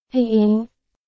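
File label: Burmese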